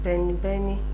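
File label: "benibeni", Nigerian Pidgin, female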